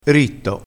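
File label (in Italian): "ritto", vocabolario dialettale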